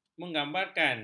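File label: "menggambarkan", Malay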